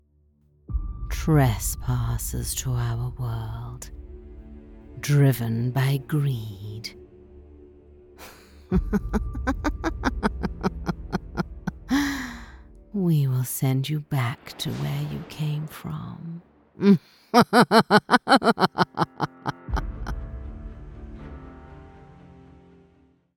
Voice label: english british video games

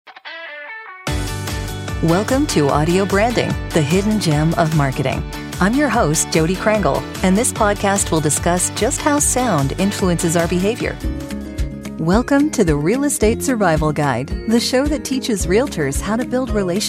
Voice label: english american podcasts

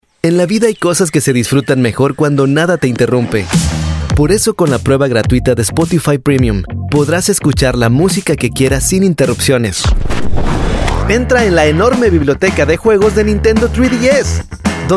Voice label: Spanish Mexican commercial; mexikanisch-neutraler spanischer

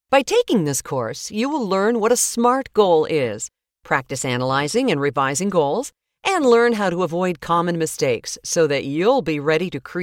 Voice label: english canadian e-learning

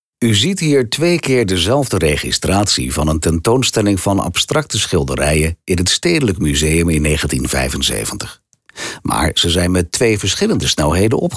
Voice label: dutch others

